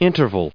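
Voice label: "interval", English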